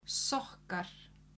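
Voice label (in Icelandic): "sokkar", Icelandic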